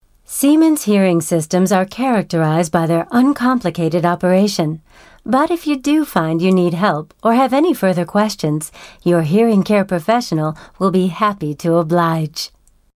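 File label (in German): American English Werbung Freundlich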